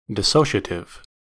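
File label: "dissociative", American English; pronunciations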